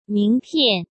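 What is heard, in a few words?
"名片", Mandarin Chinese; chinese-flashcards; hsk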